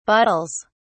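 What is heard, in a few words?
"bottles", British English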